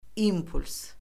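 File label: "impuls", Azerbaijani; Orfoqrafiya Lüğəti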